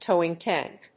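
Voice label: "towing tank", English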